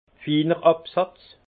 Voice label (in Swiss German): "finerabsatz", alsace; vin; fin dépot